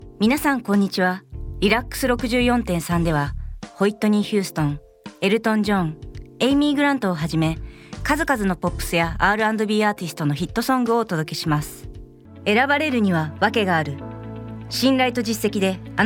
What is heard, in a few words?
Japanese